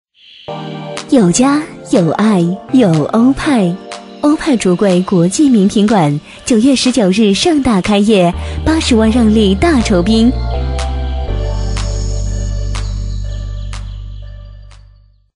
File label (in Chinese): 女 开业广告 橱柜开业; 温柔知性; 欧派橱柜开业